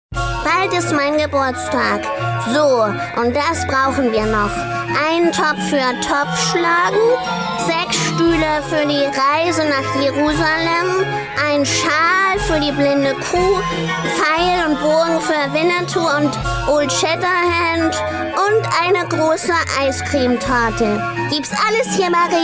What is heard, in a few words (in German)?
W Fremdtake, Kindlich; Rewe